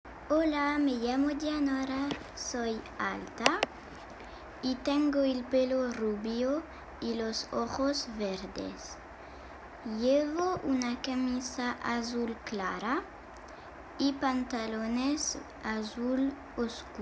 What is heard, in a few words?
audio espanol